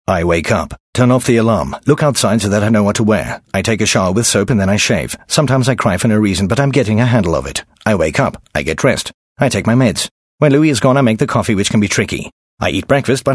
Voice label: English Dry

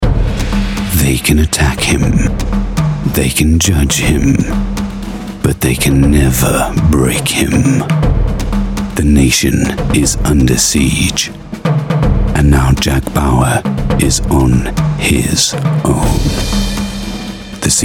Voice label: Promo Trailor

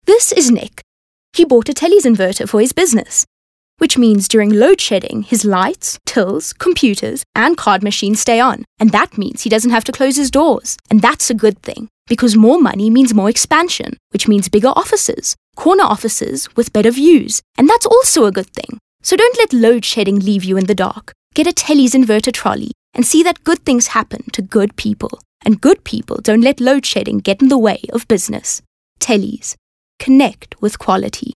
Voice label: English conversational

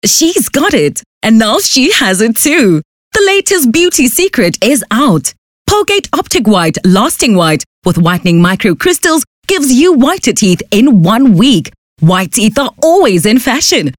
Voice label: English Hardsell

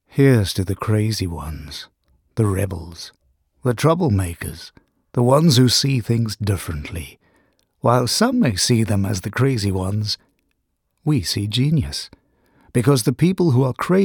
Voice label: English Conversational

